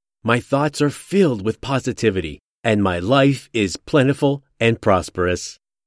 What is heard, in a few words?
Male Positivity